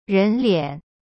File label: "ren2lian3", Chinese; cyberchinese